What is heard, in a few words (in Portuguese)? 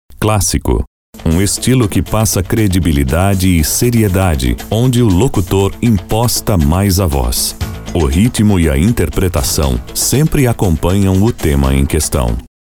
Locução Estilo Clássico com trilha